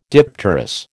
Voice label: "dipterous", American

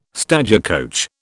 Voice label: "stagecoach", British

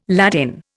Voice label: "Ladin", Indian

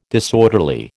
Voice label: "disorderly", American

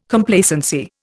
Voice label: "Complacency", Indian

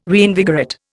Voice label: "reinvigorate", Indian

